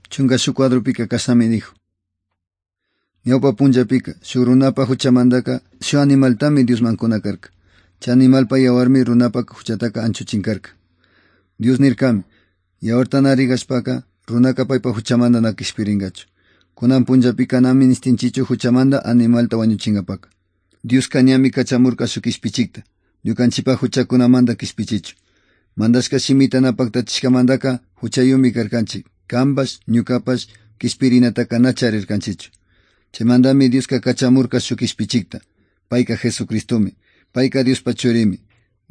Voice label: good news quichua otovalo